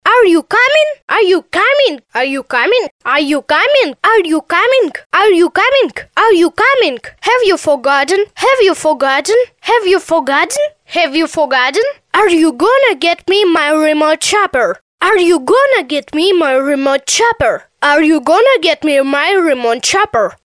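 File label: anglijskij, English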